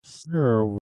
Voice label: reverse speech snow; backwards